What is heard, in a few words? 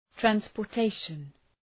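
"transportation", English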